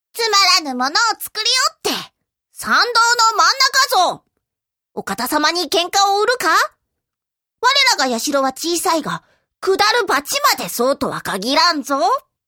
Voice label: ningenigai f doubutu okitune; 動物; （おキツネ）

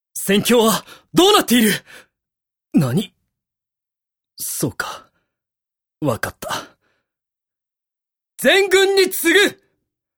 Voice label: m-kakkoii; seikaku

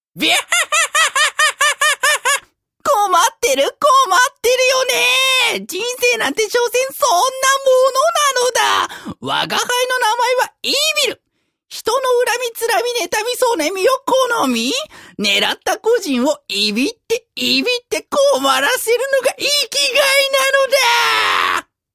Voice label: ningenigai m ibiru; その他の人間以外; （イビル）

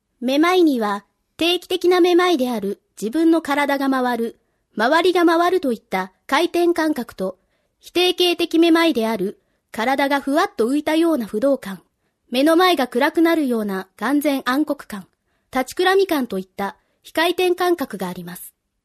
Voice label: narration, f-natural; chara navi; chara navi voice sample

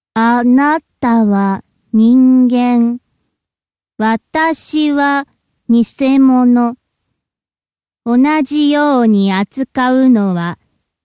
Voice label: ningenigai f robbot; その他の人間以外; （ロボット）

